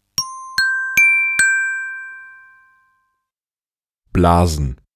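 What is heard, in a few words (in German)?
"blasen", German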